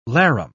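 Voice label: "larum", British English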